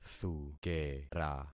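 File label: "thukera", concatenated syllables; Affixing experiment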